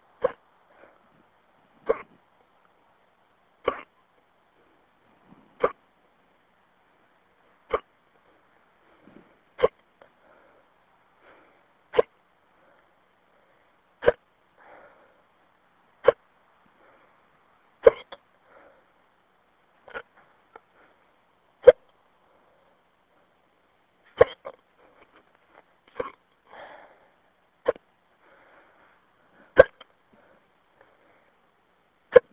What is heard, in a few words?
phone msg